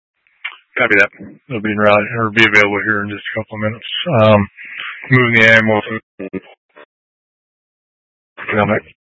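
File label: Incident Tactical 1, Scanner audio recording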